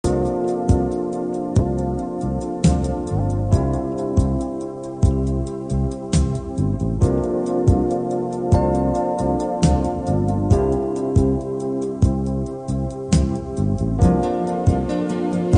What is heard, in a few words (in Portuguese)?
desc amizade fem